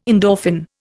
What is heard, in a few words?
"endorphin", Indian